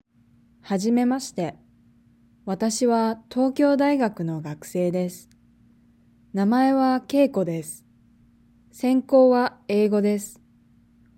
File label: Reading natural; Nakama; OJAD